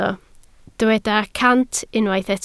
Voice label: "cant", Welsh

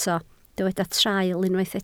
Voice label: "traul", Welsh

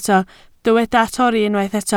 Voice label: "torri", Welsh

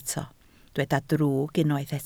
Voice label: "drwg", Welsh

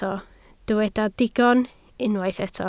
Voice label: "digon", Welsh

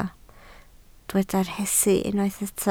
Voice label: "rhesi", Welsh

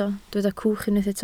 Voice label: "cwch", Welsh

